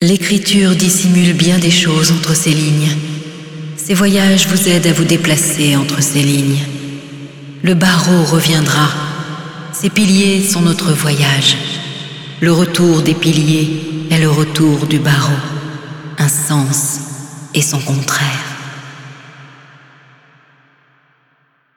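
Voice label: Speech, french; Yeesha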